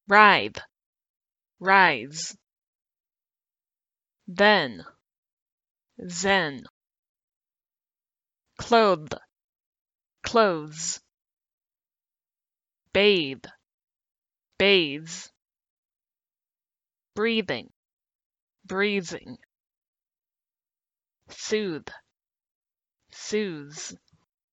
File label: compare eth z words, English phonetics